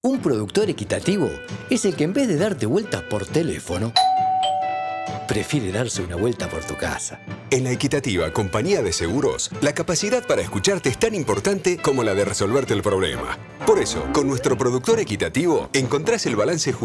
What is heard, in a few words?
RADIO SPOT